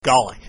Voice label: gaelic